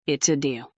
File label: fem